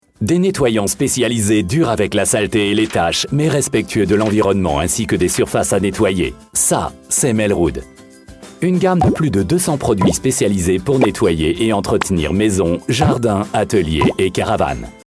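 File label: French Dynamisch; Fremdtake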